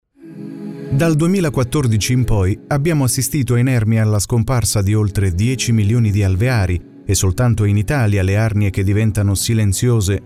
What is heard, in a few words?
italian documentaries, voice actor